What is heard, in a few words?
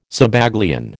"Zabaglione", American